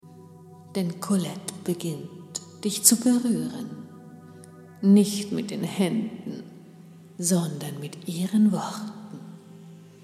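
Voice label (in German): Hypnotisiert, Hörprobe; in Paris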